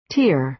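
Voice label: "tear", American English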